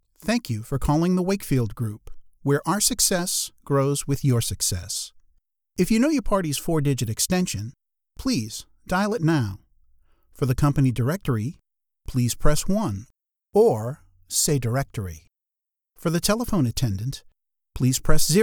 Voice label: IVR Phone